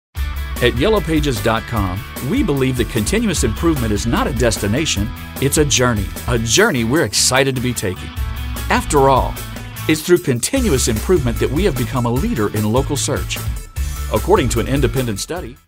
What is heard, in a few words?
upbeat